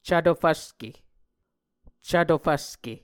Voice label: "cvto fvske", muscogee